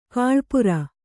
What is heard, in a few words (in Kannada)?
"ಗಳಪೂರ", Kannada